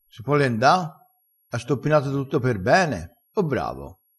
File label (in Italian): "stoppinato", vocabolario dialettale